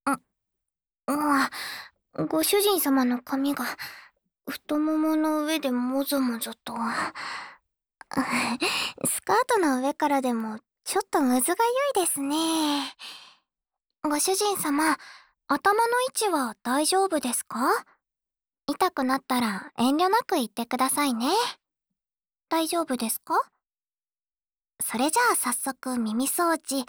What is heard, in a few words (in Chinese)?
主人，到掏耳朵時間了（左）